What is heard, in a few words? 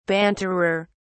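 "banterer", British English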